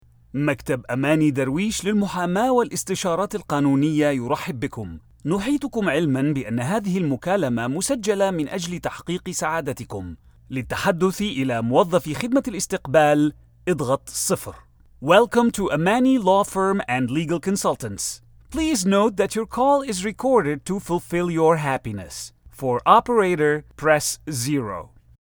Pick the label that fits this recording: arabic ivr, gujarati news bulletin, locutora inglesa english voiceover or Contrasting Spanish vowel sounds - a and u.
arabic ivr